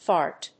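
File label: "fart", English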